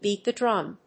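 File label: "beat the drum", English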